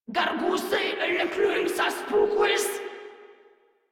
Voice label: Patrol Grineer Female; Warframe

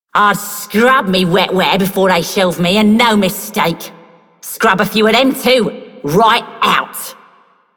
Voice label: Female Scum, Female One, en; Dialog; Warframe; Solaris Venus

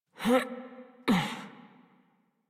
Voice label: Horse Dismount Adult; Warframe; Duviri

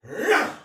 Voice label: Attack, Soldier Male; Sinecure

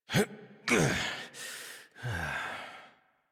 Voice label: Horse Dismount Adult; Warframe; Duviri

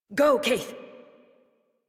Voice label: Horse Sprint Adult; Warframe; Duviri

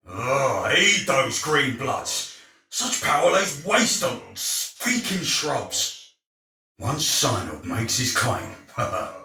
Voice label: Patrol Spoken Soldier Male; Dialog; Barks; Battle; Sinecure

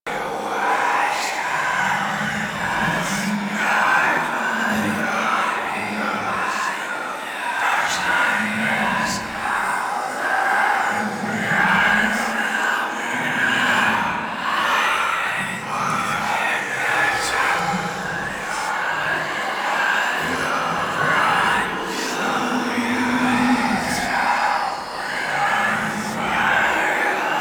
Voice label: Whisper Guide Loop; Warframe; Harrow

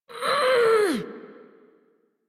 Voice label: Horse Stop Adult; Warframe; Duviri

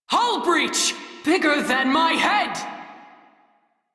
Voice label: Crew See Breach, Female; Dialog; en; Warframe; Railjack Crew; New Loka

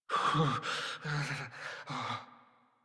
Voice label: Generic Cold Shiver Operator Voice; Operator Mission

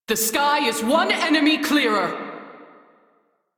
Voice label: Crew Gun Kill Fighter, Female; Dialog; en; Warframe; Railjack Crew; New Loka

